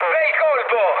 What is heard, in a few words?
player killed enemy mood med, speech it